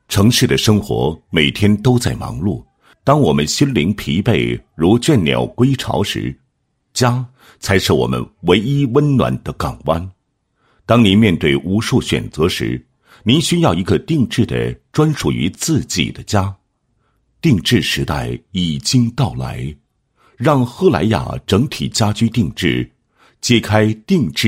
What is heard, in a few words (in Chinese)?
男 公司专题, 配音; 广西赫莱雅家居有限责任公司